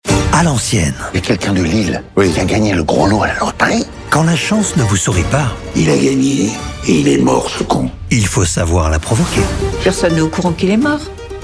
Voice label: Bande annonce; A l'ancienne

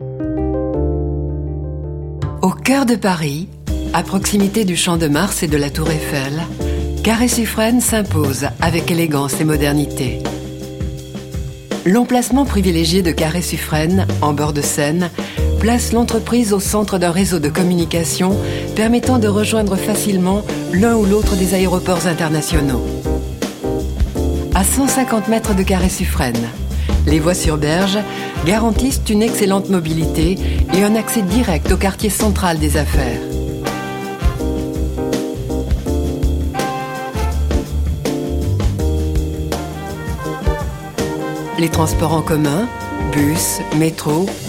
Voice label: institutionnel internet; Carre Suffren

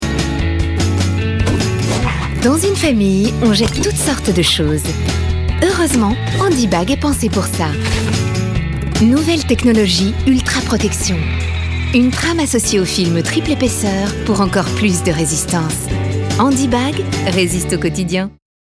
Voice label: F FR PUB TV; HANDYBAG

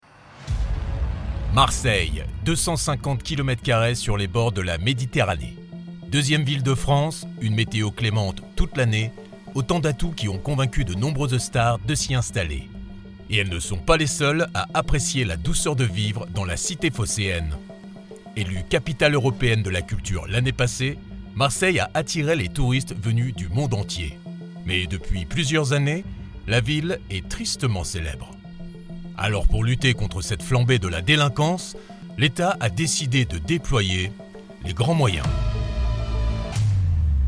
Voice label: Voix off

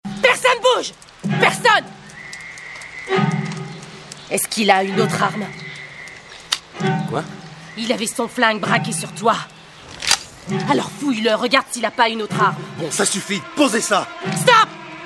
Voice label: doublage; Michèle Rodriguez; lost

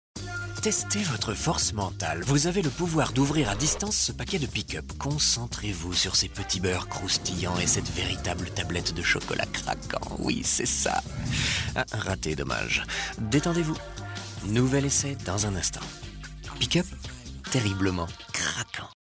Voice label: Voix Off; PICK UP

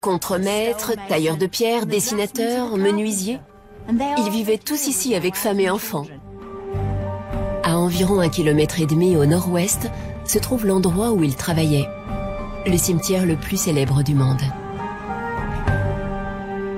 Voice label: VOICE OVER ET NARRATION

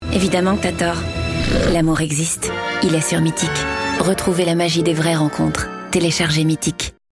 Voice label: PUB FR; Meetic 2024

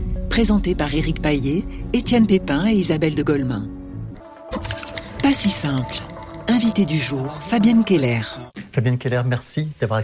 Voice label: podcast; Pas si simple

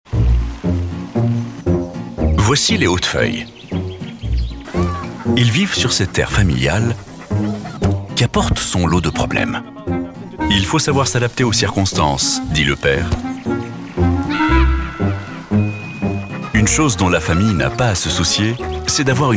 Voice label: Spot TV Tondeuse Robot; HUSQVARNA; Automower®

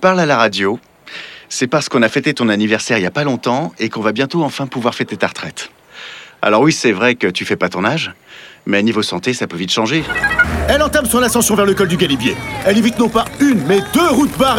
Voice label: Medley Comedie Pub Voix

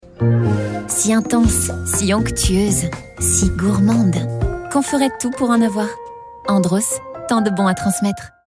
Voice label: PUB TV FR; Andros

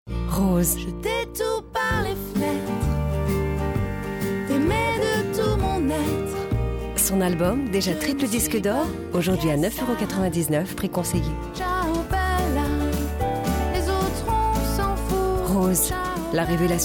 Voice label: Doux incitatif

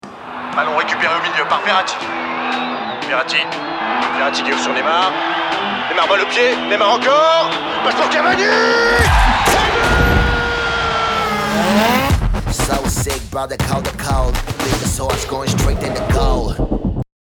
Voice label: Pub TV Commentateur de foot; UNIBET

